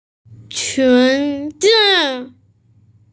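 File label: "qun zi", Mandarin Chinese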